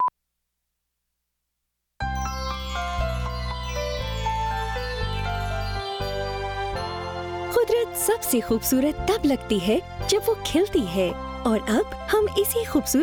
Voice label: Hindi FM; Airwick Gemma Pearls